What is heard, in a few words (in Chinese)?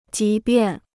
"即便", Chinese